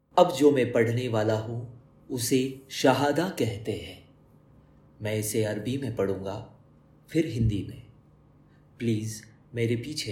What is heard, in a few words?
Shahadah Hindi